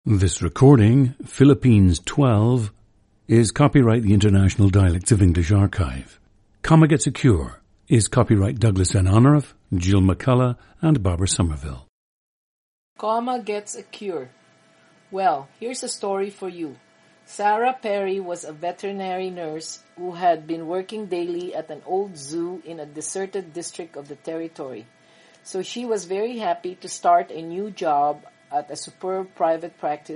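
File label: philippines, dialects of English; Philippines 12; International Dialects of English Archive; a 60-year-old woman from Manila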